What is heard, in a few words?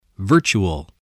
"virtual", English